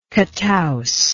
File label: "catouse", English